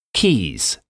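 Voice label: "keys", English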